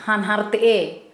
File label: Kera Mundari F mother in law